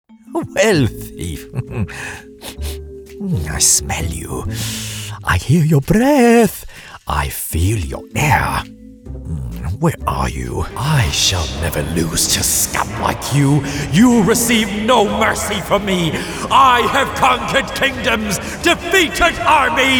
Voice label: Character